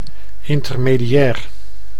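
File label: "intermediar", Dutch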